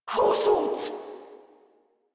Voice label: Player Spotted Grineer Female, Barks, Battle